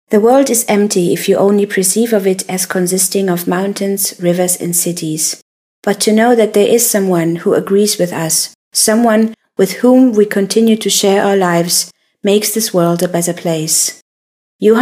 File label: englisch